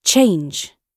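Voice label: "change", British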